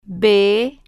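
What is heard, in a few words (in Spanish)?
"b", spanish, female, slow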